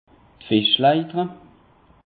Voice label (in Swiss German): "fischleiter", alsace; peche